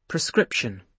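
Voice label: "prescription", British English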